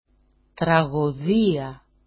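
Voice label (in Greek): "tragodia", Greek; τραγωδία; [traγo'δia]; tragedy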